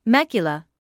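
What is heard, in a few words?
"macular" English, British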